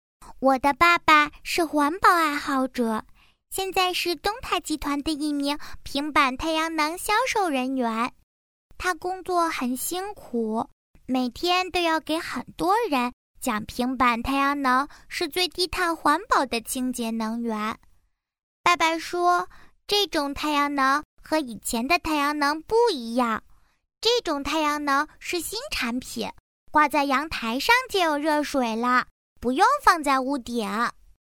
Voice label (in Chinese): 童声 女童 我的爸爸